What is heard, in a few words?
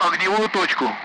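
Speech, Russian; Allied Flight; bunker; DCS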